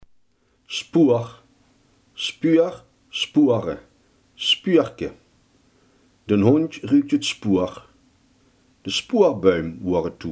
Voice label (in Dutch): "spoor", Limburgs